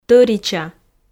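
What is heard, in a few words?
"тӧрича", Komi, female; audio dict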